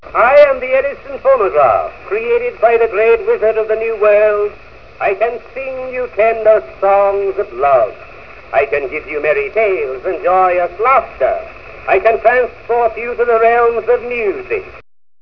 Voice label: Commercial